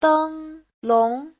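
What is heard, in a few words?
"dēnglóng", Mandarin Chinese; Giáo trình Hán ngữ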